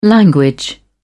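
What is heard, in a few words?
"language", British English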